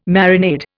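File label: "marinade", Indian English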